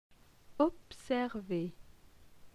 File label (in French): "observer", francais